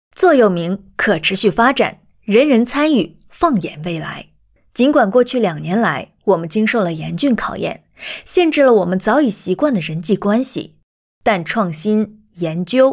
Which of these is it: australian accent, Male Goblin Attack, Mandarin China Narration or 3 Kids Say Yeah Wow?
Mandarin China Narration